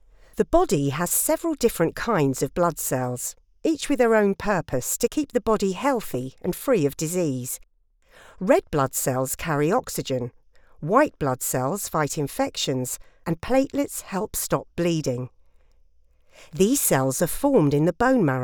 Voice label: english british e-learning